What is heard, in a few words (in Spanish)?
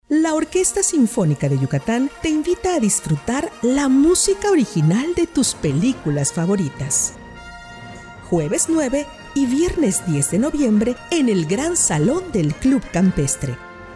Spots de radio; Peliculas